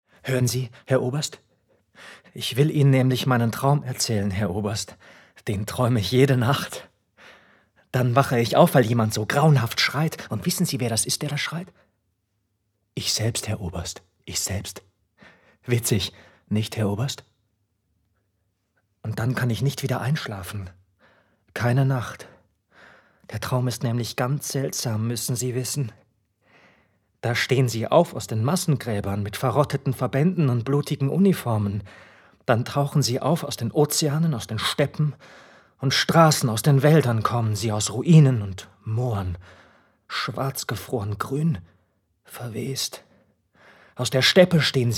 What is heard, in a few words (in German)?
Monolog; Beckmann